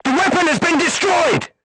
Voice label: voice message weapon destroyed, crew tanks vietnamese